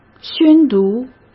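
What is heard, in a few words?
"宣读", Mandarin Chinese; hsk; chinese-flashcards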